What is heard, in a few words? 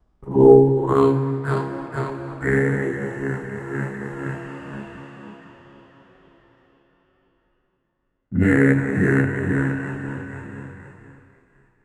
speak in a deep slow